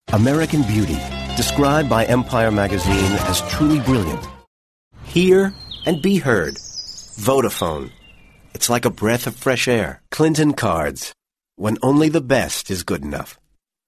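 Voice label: American English, Commercial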